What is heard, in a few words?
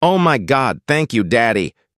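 thank you daddy, male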